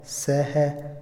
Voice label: "solo", Otomí, hombre; INALI

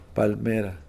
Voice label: palmera, Kakchikel

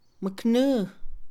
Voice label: aguardiente, Mixe, Mujer; Comida; INALI

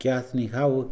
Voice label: "necio", Mixe, hombre; Cognición; INALI

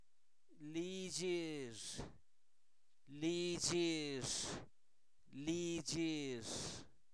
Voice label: Zapoteco, santo domingo albarradas; INALI; posesivos; su casa

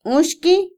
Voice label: salado, Otomí, mujer; Percepción; INALI

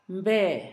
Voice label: "aire", Zapoteco Asunción Ocotlan; Fenómenos; INALI; Haspelmath

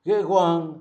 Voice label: espejo, Zapoteco, Magdalena Ocotlan; Objetos; INALI; Haspelmath

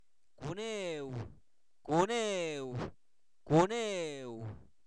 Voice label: conejo, Zapoteco, santo domingo albarradas; animales; INALI

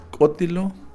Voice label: cero, Kakchikel; Números

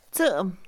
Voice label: mecapal, Mixe, Mujer; Acciones; INALI

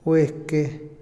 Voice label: dividir, Otomí, hombre; INALI

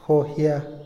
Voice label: "entusiasmarse", Otomí, hombre; INALI

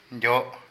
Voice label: Lobo, Coyote, Zapoteco de Petapa; Animales; Vocabulary

